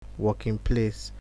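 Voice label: "working place", Nigerian Pidgin, male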